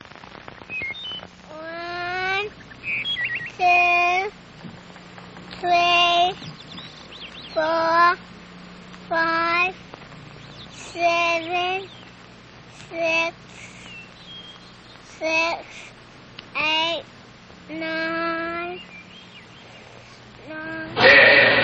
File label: Commercial Election Ad Daisy Girl; LBJ 1964 Election Ad